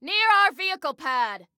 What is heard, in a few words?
Warn Enemy Near Our Vehicle Pad, Female